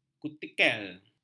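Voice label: "kutikel", Malay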